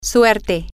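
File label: lucky, Spanish, female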